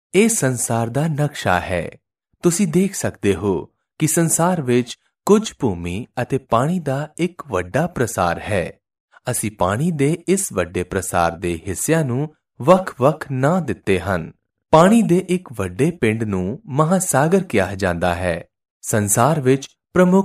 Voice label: Punjabi VO sample